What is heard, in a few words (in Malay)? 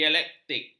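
"dialektik", Malay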